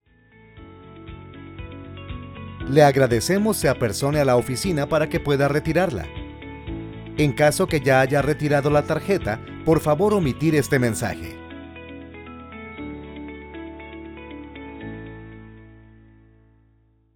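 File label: Costa Rican Spanish; generico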